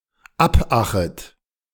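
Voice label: "abachet", German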